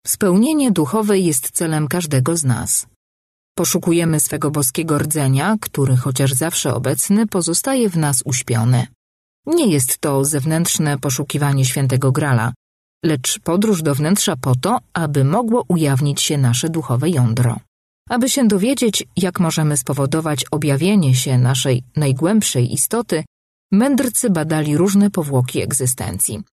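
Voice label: Polish Female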